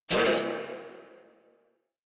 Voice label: Pain Crewmen, en; Warframe; Corpus Crewmen; Crewman A; Battle Barks